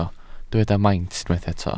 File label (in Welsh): "maint" Welsh